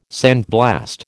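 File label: "sandblast", American English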